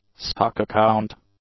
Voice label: "stock account", english; nouns